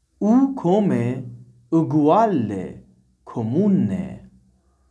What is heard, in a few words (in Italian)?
italian